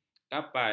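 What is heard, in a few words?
"kapai", Malay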